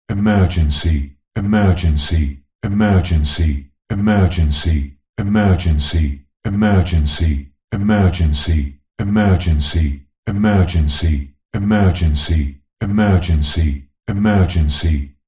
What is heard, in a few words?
science fiction computer voice says emergency repeated alarm; zapsplat